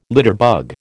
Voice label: "litterbug", American

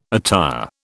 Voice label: "attire", British

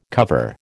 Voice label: "cover", American

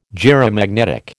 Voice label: "gyromagnetic", American

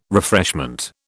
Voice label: "refreshment", British

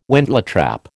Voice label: "wentletrap", American

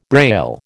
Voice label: "Braille", American

